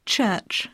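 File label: "church", English; poitiers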